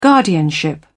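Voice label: "guardianship", British English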